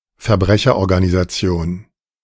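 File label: "Verbrecherorganisation", German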